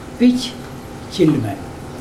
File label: three pigs, mokilese